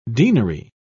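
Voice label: "deanery", British English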